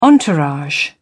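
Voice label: "entourage", English, British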